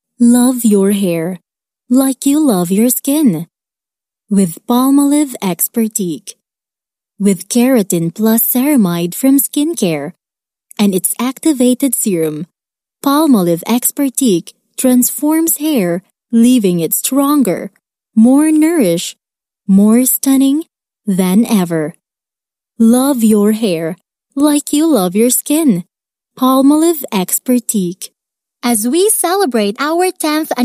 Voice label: Filipino English Promotional